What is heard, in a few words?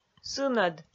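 "сынӧд", Komi, female; audio dict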